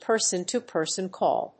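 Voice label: "person-to-person call", English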